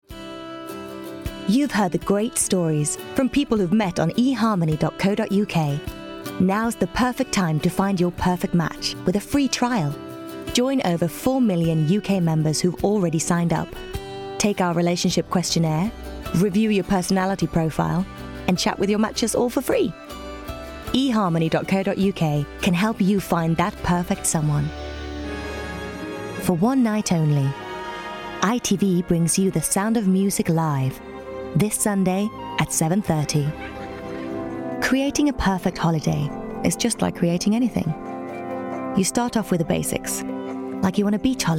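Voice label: Swedish, English accent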